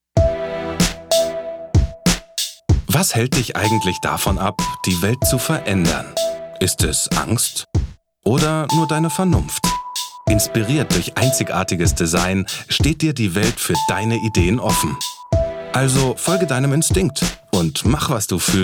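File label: German Commercial; BMW Mini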